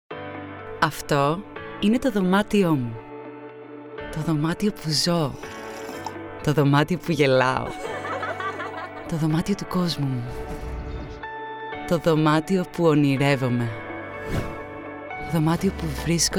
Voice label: Greek

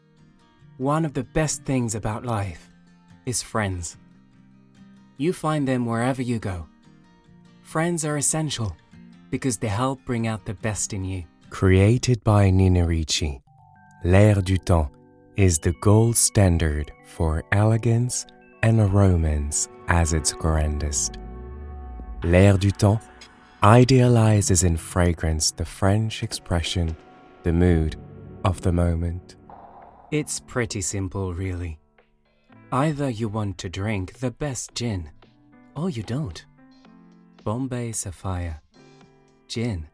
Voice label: ENGLISH

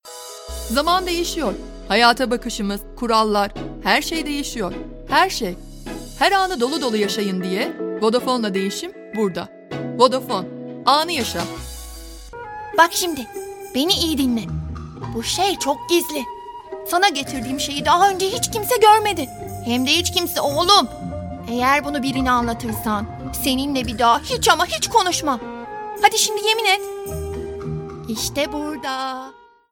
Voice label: Turkish

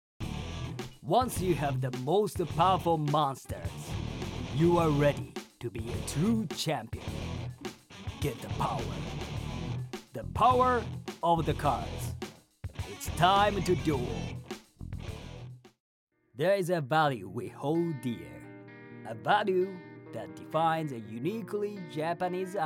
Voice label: Voice Corporate

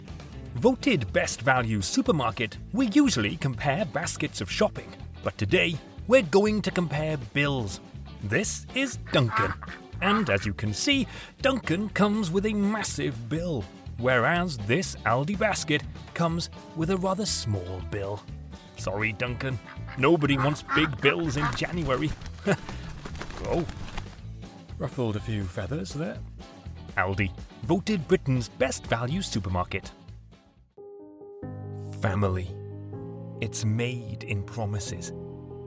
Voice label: Commercial; Voicereel

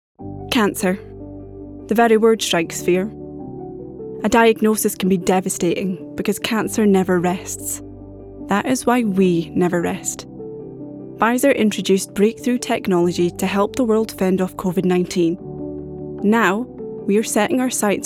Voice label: CORPORATE

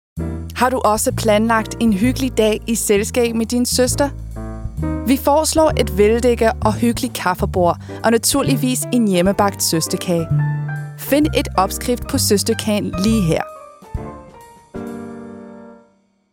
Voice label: DANISH COMMERCIAL

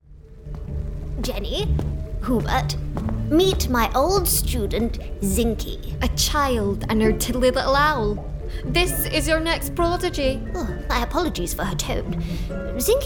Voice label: Animation

